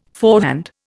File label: "forehand", Indian